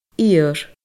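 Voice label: "iarr", Scottish Gaelic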